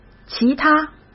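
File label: "其他", Mandarin Chinese; hsk; chinese-flashcards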